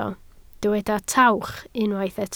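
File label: "tawch" Welsh, spoken word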